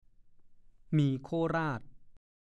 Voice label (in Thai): "หมี่โคราช", ภาษาโคราช; เส้นหมี่ทำด้วยแป้งข้าวเจ้า มัดเป็นกำ ๆ เป็นเอกลักษณ์ของโคราช